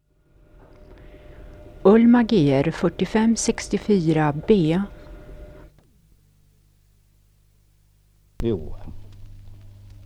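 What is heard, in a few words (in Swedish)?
Kräklinge Närke, Svenska sagor, dialekter; Folkminnen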